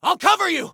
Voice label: Self Task Cover, Soldier Male